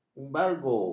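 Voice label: "embargo", Malay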